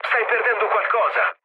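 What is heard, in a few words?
Italian tank damaged mood low, speech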